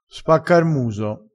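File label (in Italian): "spacca r muso", vocabolario dialettale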